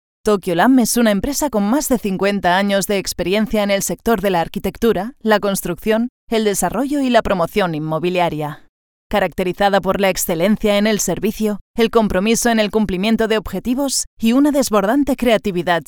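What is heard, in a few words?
Spanish, female, corporate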